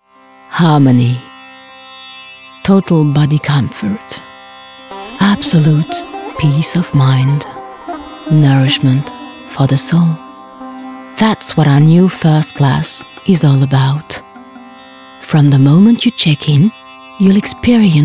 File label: English; Singapour Airlines